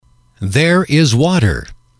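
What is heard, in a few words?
"there is water", English, male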